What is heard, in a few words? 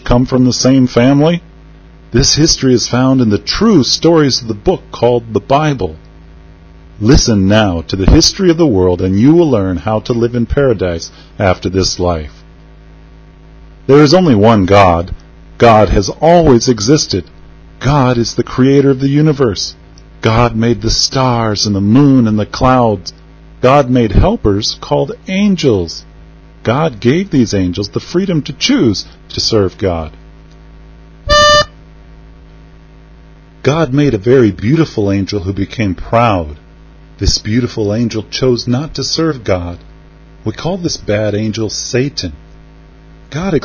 english, story